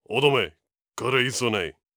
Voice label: angry